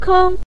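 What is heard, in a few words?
"keng" neutral tone, Mandarin Chinese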